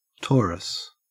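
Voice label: "torus", Australian English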